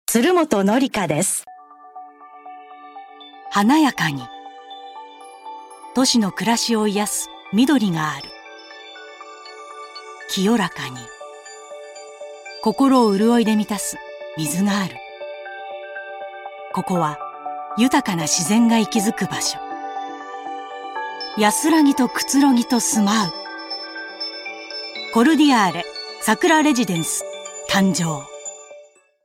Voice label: female tv commercial

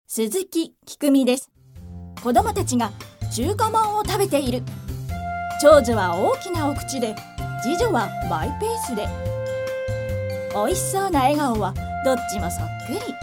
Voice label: female radio commercial

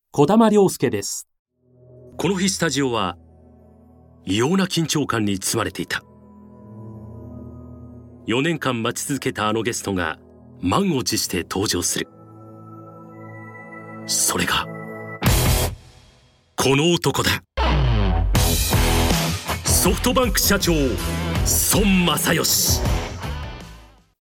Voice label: male tv program

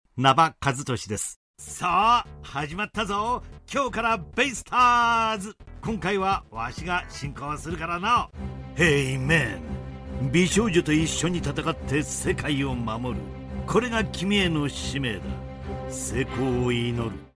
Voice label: male tv program

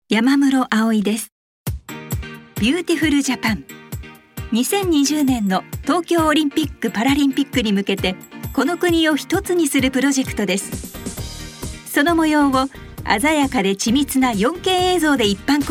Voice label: female programintroduction en

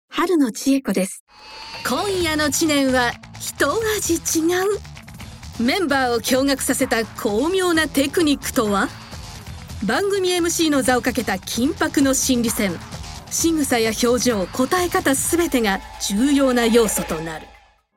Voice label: female variety show

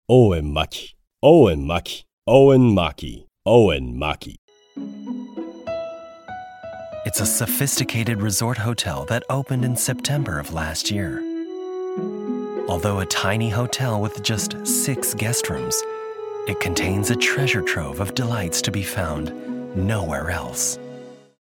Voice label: male travel

